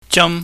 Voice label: "jam", chinese

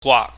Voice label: "gwaak", chinese

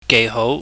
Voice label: "geihou", Cantonese

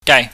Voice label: "gai", chinese

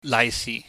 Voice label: "laihsih", Cantonese

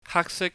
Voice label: "haaksik", Cantonese

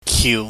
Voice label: "kiu", chinese

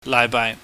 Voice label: "laihbaai", Cantonese

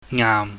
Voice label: "ngaam", pingyam

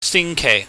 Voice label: "singkeih", Cantonese

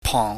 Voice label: "pong", Chinese

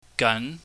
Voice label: "gan", chinese

